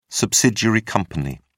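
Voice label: "subsidiary company", British English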